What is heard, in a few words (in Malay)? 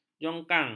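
"jongkang", Malay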